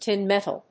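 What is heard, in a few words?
"Tin metal", English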